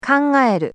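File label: "kangaeru", Japanese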